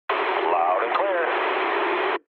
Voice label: eng chat, speech eng